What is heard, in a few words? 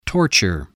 "torture", voice